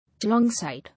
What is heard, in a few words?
"Alongside", English